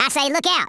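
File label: "take cover" spoken, Redneck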